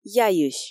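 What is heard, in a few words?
"яйысь", Komi, female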